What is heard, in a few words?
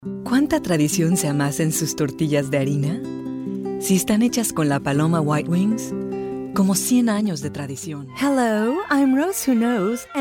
Spanish, females